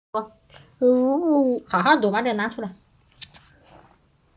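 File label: "shi2wu4", Mandarin Chinese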